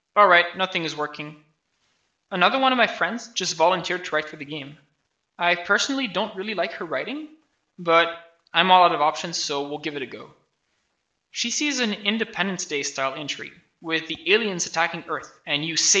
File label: voice, narration